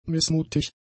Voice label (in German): "missmutig", German; adjectives